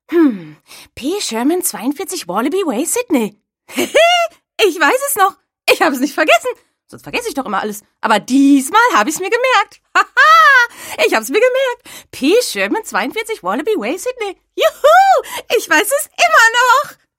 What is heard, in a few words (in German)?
Synchron freudig